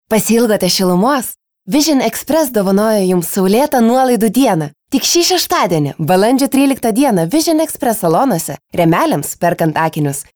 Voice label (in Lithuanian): reklama